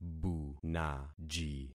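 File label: "bunaji", concatenated syllables; Affixing experiment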